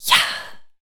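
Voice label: vocal female, Dance Vocals, spoken words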